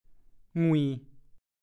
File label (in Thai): "หงุ่ย", ภาษาโคราช; ทอดหุ่ย ปล่อยอารมณ์ตามสบายโดยมิได้วิตกกังวลอะไร นั่งเฉย